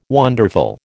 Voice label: "wonderful", American